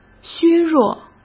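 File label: "削弱", Mandarin; chinese-flashcards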